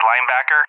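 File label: "Linebacker", English, USA; AirDefence; DCS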